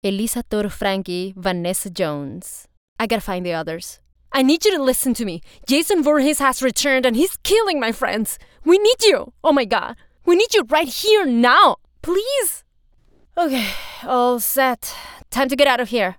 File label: Female